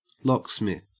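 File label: "locksmith", Australian English; pronunciations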